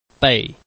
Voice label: "bei4", Chinese

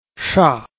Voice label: "sha4", Chinese

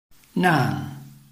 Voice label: "nan4", Chinese

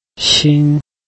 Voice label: "xin1", Chinese

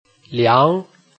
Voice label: "liang2", Chinese

